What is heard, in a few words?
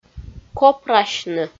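"копрасьны" Komi, female; audio dict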